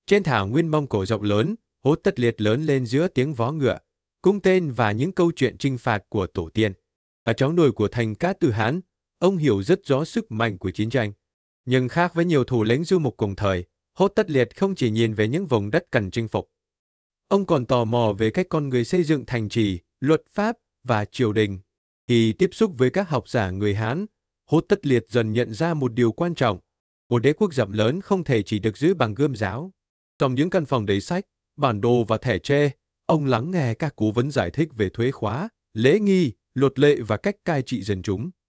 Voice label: narration